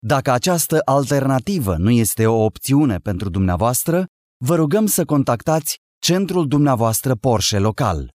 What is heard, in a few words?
IVR Romania